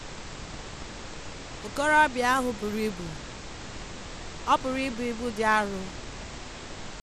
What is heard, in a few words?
female Igbo Pink Noise; Speech Quality Examples